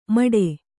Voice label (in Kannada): "ಮಡೆ", Kannada